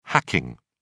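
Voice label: "hacking", British English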